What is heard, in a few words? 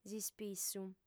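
"s'ispissu", female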